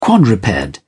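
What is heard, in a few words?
"quadruped", English, British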